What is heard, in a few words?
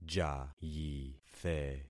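"jayithe", concatenated syllables; Affixing experiment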